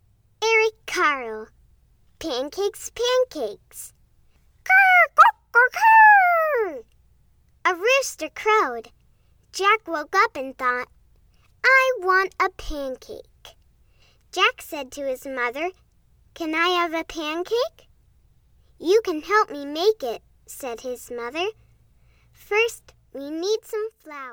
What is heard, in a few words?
Audio Book Sample; Pancakes Pancakes